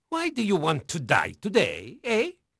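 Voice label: Dutch male, voice; Pirates Of The Caribbean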